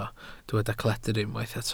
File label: "cledr", Welsh